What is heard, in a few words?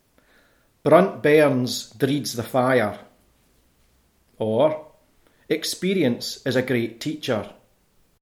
"brunt", Scots